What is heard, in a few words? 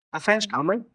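Voice Acting es M, Spanish, male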